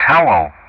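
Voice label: "Towel", English; Ka-50; Player Callsign; DCS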